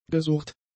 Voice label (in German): "gesurrt", german; adjectives